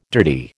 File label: "dirty", American